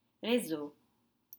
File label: "reseaux", French; networks